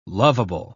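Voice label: "loveable", British English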